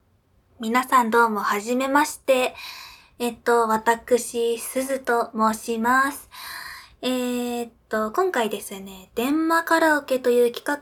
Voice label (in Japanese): 自己紹介, ASMR